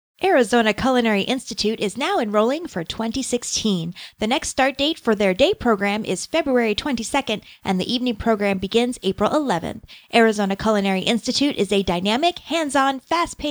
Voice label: Ad; AZCulinaryInst